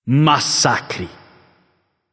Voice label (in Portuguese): alert notify kill streak, vox caster portuguese